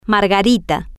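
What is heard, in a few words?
"margarita", Spanish, female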